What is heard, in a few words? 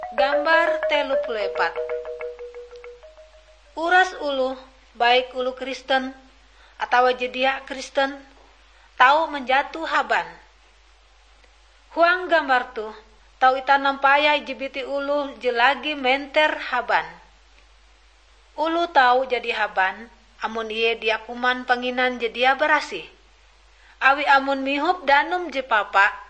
kabar bahalap good news ngaju, drama